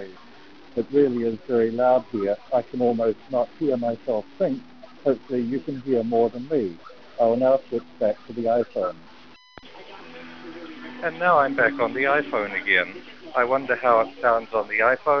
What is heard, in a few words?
jawbone2 noisy, bluetooth